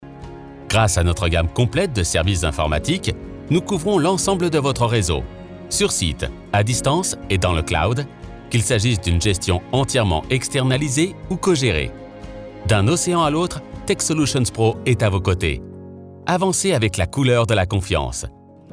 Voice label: corp, Narration, Inspirational Deep, French Male Voice; Techsolutions Pro